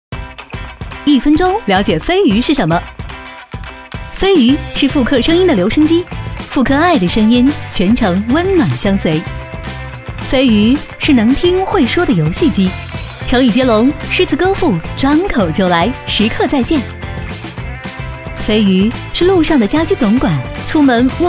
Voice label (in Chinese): 女 MG; 1分钟了解飞鱼